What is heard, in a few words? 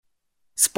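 Slovak female voice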